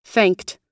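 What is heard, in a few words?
"thanked", US English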